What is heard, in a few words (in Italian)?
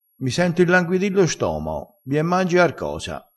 "stomao", crespinese